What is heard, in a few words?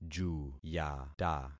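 "juyata", concatenated syllables; Affixing experiment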